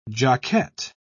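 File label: "jockette", British English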